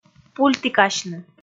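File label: "пультікасьны", Komi, female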